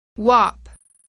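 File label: "whap", English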